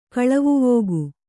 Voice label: "ಕಳವುವೋಗು", Kannada

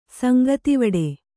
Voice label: "ಸಂಗತಿವಡೆ", kannada

